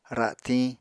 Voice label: "ra7tiñ", Apatani